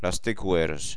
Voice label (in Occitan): "las tecoeras", gasconha